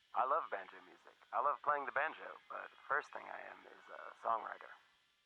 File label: Narration